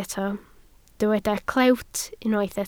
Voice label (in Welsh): "clewt", Welsh